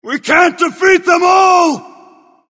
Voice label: caves eng